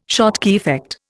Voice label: "Schottky effect", Indian